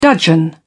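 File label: "dudgeon", British English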